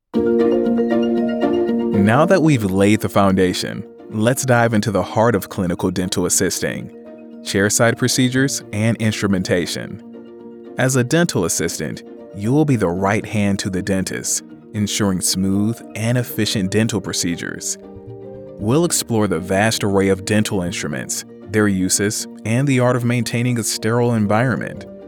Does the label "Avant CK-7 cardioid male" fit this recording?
No